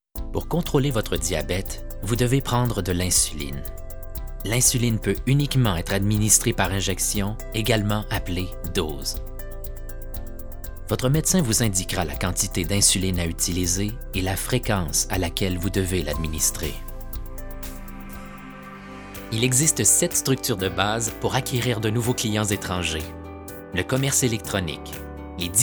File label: french canadian male; dictor; dictor-sounds